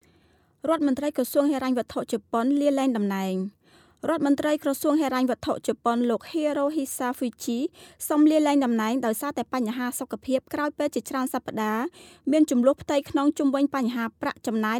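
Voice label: Khmer, Narrative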